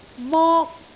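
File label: "mok1", Cantonese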